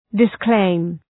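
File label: "disclaim", English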